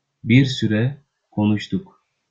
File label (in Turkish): "bir süre konuştuk", Turkish